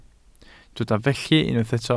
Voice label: "felly", Welsh